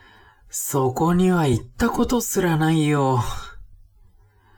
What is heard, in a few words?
man